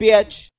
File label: "bitch", expletives